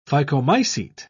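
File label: "phycomycete", British English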